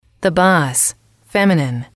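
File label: "boss", English, female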